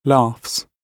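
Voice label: "laughs", British English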